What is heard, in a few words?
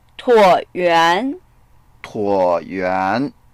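"tuo3yua2n", Mandarin Chinese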